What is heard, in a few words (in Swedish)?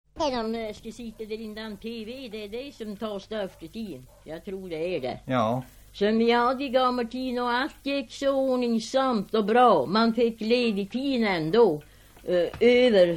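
Vilhelmina Lappland, dialekter; Folkminnen; Svenska sagor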